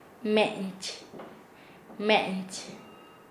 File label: "delgado", Nasa Yuwe; paez